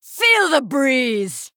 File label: Female Night Elf Attack